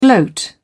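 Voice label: "gloat", British English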